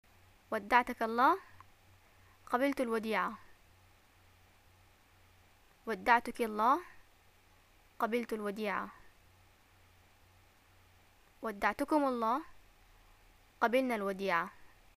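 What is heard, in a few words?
"greeting farewell", Yemeni Arabic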